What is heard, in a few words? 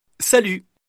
"e-e-e", Male